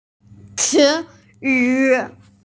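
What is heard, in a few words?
"ci yu", Mandarin Chinese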